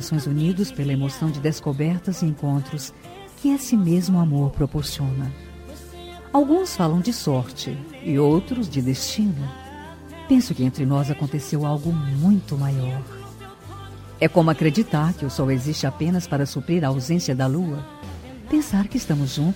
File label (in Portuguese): dn gospel fem namorad, evangelica voz feminina; dia dos namorados para namorado